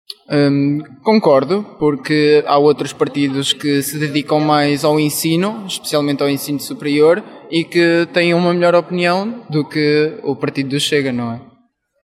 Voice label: Vox pop